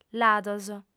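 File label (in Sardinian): "lados", Sardinian, female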